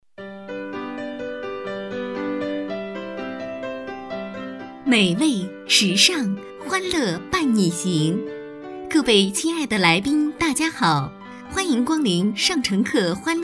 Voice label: 多媒体 广播提示 店铺营业提醒

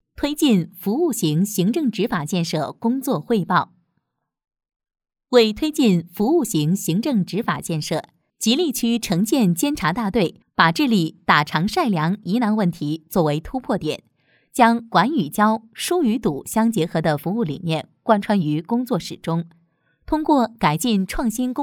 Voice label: 专题 汇报 服务执法汇报 年轻

